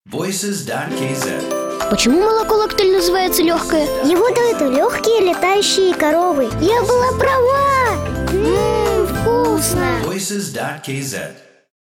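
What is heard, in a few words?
kid, Russian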